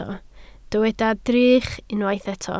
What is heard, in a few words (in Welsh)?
"drych", Welsh